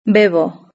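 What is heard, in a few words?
"bebo" Spanish; verb-conjugator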